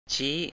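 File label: "ชี้" Thai, male; chí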